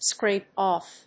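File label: "scrape off", English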